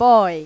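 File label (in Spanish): "niño", Spanish; Pipo-Aprende musica con Pipo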